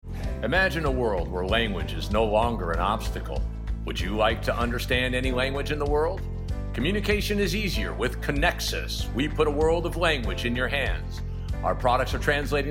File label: Radio Commercial